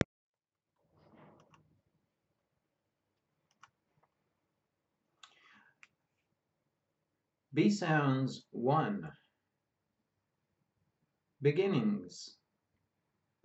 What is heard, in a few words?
B sounds, pronunciation of australian english